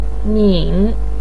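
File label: "nin", Mandarin Chinese third tone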